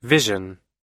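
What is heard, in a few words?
"mission", US English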